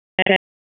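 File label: Raleigh Police Southeast District Dispatch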